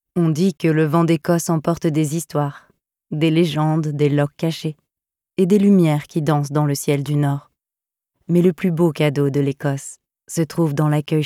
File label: female french voice over narrative, france